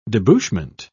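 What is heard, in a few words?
"debouchment", British English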